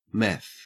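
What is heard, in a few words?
"meth", Australian English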